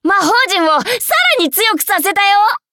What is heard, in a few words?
level up ja; Cookie Run